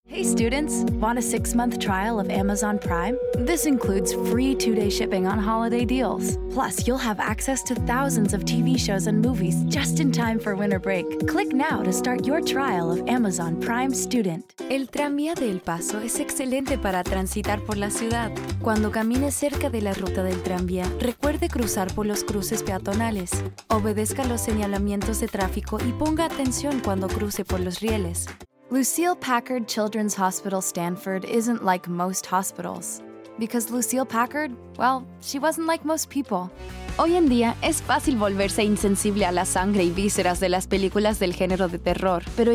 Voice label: Bilingual